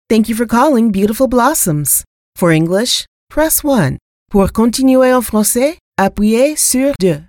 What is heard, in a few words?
IVR